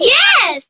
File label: "yes", women